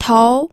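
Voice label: "tou2", Mandarin Chinese, pinyin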